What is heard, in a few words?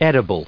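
"edible", English; adjective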